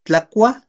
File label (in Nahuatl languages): "tlakuaj", Náhuatl; comen; they eat